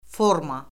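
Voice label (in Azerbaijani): "forma", Azerbaijani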